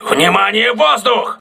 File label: voice message air alert, crew ships russian